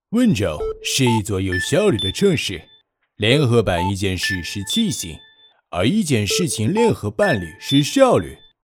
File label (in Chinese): 温州 角色外国人